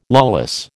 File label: "lawless", American